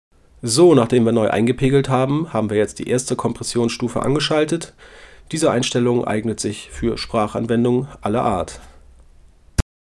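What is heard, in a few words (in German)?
stimme kompr; apogee hypemic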